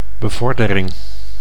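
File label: "bevordering", Dutch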